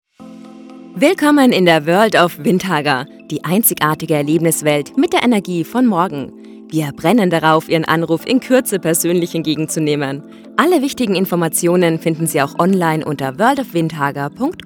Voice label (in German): Windhager Telefonansage High Quality